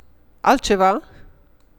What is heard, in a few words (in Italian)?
ristorante, rumeno; frasi utili